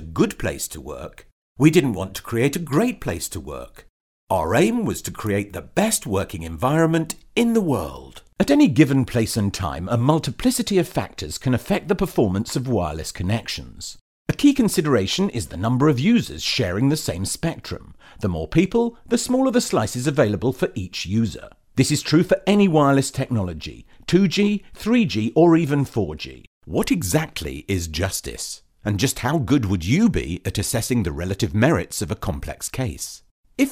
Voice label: Corporate Voice Reel